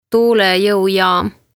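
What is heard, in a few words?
"Tuulejoujaam", Estonian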